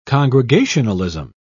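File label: "congregationalism", British English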